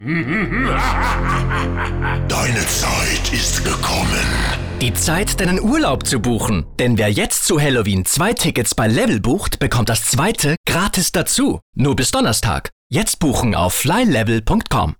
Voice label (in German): Werbung schizophren; Flylevel